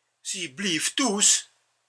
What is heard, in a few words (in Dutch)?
limburgs